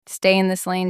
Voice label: Female Stay In Lane